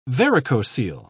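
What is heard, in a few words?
"varicocele", British English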